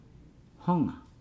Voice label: "on honga", Nukuoro